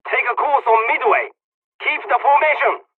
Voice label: midway dialog, dialogs serbian; War Thunder

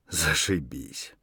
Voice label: mutter, voice; Escape from Tarkov

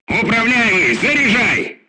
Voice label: voice message load MISSILE, crew tanks russian

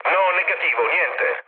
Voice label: chat, speech it; War Thunder

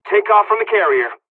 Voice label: dialogs polish; cv takeoff; War Thunder

